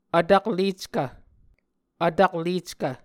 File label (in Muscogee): "vtakleckv", Muscogee